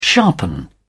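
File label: "sharpen", British English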